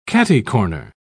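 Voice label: "catty-corner", British English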